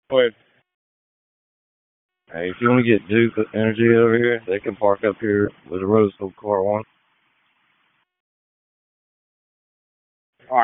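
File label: Public Safety Tactical, p25; wake p25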